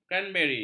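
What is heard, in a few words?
"kranberi", Malay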